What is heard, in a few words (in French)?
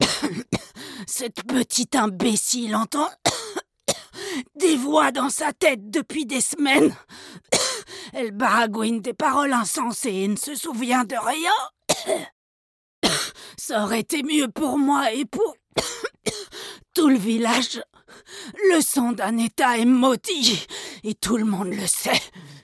Jeu Video Medieval Vieille Femme tuberculeuse